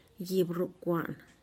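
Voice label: "gibrukuaan", hand-scythe, Tlacochahuaya Zapotec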